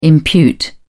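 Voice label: "impute", British English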